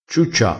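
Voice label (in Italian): "ciuccia", vocabolario dialettale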